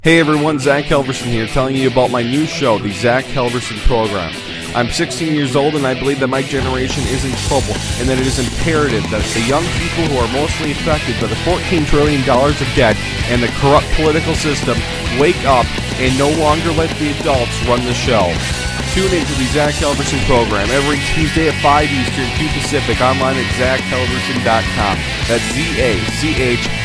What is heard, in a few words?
commercial